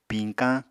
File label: "piñkañ", Apatani